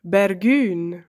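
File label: "Bergün", German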